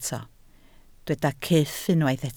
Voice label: "cyff", Welsh